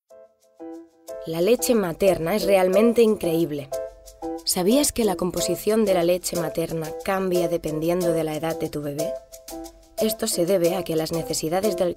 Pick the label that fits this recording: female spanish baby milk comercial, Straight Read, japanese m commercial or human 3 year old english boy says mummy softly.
female spanish baby milk comercial